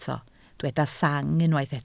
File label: "sang", Welsh